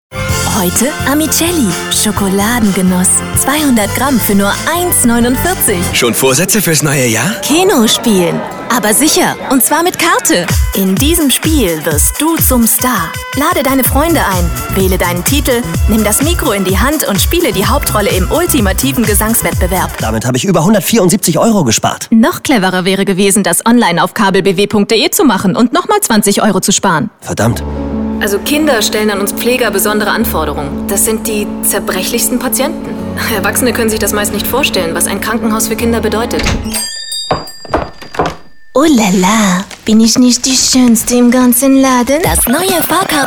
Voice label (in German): funkwerbung